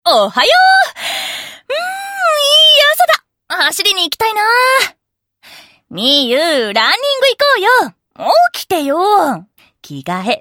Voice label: おはようボイス, ASMR; 屋上の百合霊さん#3特典ボイス; 茉莉

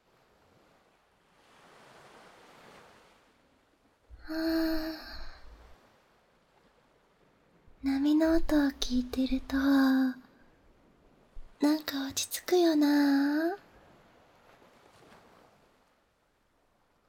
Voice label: 波音を聴きながら一緒に添い寝しよう 癒し系彼女, ASMR

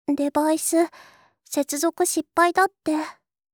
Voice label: デバイス、接続失敗だって, システムボイス

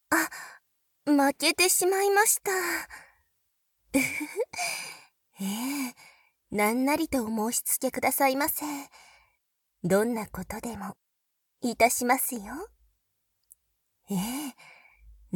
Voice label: 囁き重視編集 効果音あり大きめ, ASMR; オマケ１ 野球拳勝利→文音の罰ゲーム

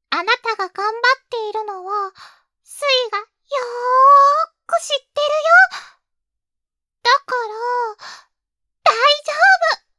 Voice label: 励ましボイス

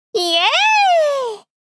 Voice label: いぇ～い！ お姉さん×中音域

